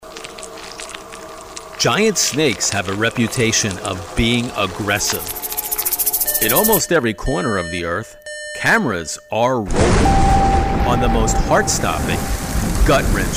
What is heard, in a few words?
narration sizzle